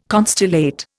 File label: "constellate", Indian